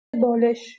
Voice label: "balesh", Persian